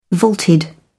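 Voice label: "vaulted", British English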